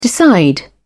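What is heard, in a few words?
"decide", English, British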